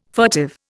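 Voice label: "furtive", Indian English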